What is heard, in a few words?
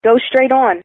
"Straight", American English, female